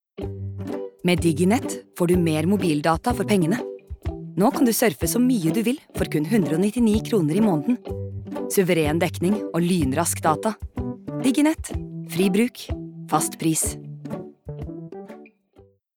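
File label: Norwegian advert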